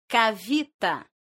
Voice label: "kavita", esperanto; leciono1